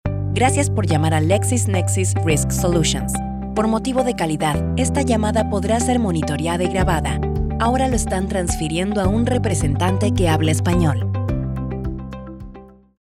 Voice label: spanish latin american ivr; Buenos Aires, Argentina; URA